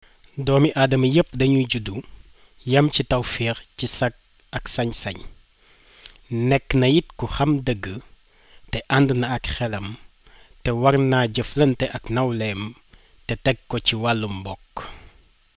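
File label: udhr wo, Wolof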